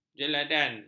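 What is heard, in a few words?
"jeladan", Malay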